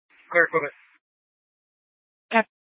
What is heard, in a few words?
Police Dispatch, scanner